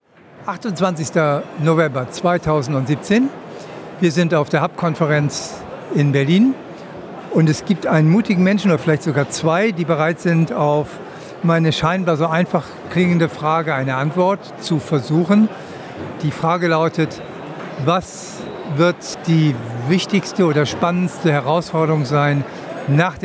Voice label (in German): 2 stimmen nano cyborg